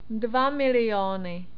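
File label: "dva milióny", Czech; numbers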